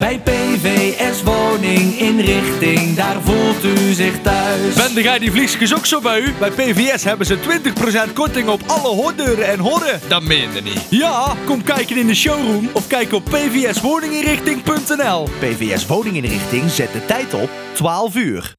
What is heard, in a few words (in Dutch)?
Commercial met tijdsein; PVS Woning Inrichting; Tijdsein Uur 12